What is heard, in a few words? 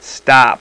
"stop", voice